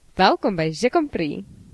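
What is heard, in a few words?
welcome, Brazilian Portuguese; gcompris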